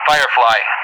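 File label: "Firefly", English; Callsign; DCS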